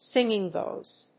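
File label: "singing voice", English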